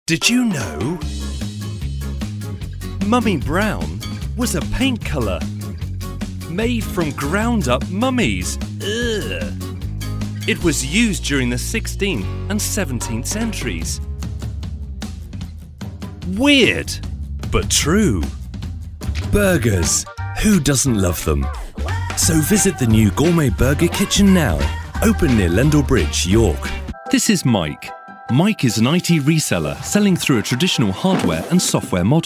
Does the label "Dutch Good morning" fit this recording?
No